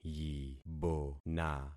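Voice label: "yibona", concatenated syllables; Affixing experiment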